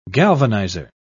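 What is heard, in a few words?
"galvanizer", English, British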